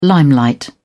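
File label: "limelight", British English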